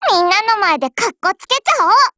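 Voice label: skill, Japanese; Type 97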